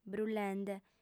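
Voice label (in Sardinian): "brullende", female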